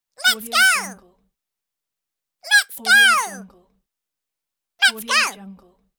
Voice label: cartoon voice lets go